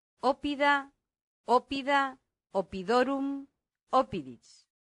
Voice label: "oppida", latin; santillana